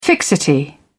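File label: "fixity", British English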